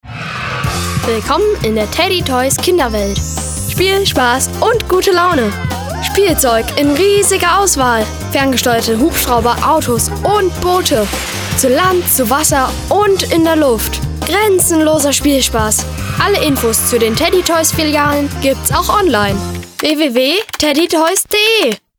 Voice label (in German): Werbung Spielwaren